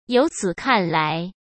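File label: "由此看来", Mandarin Chinese; chinese flashcards; hsk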